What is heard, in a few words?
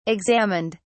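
"examined", British English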